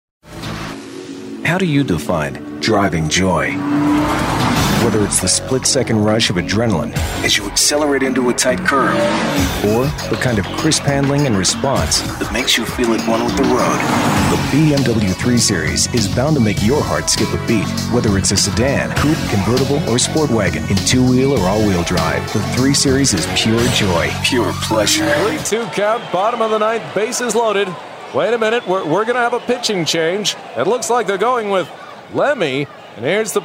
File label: Commercial